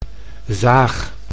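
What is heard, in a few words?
"zaag", Dutch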